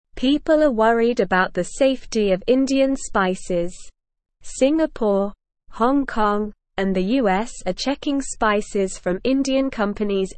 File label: English SLOW Reading; Worries about Indian spices hurting peoples health